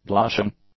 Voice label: "blushing", English; adjectives